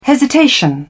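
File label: "hesitation", British English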